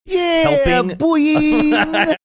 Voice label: funny